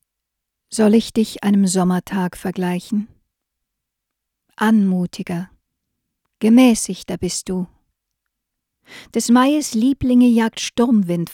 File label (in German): microtech gefell md 100 sprache